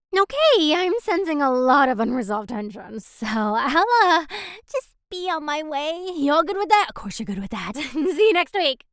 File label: Character Sample Bright Carefree